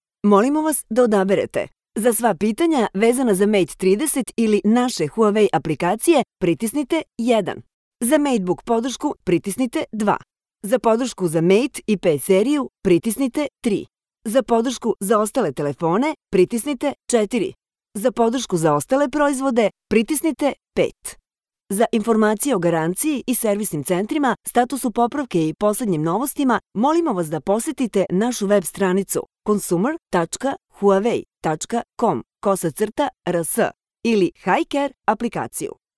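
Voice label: Serbian female IVR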